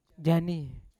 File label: "janim", Yawa; afraid; unat